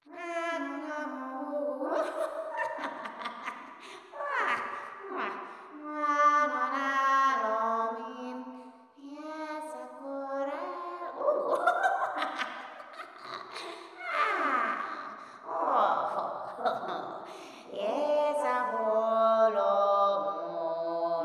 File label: mutter loop distant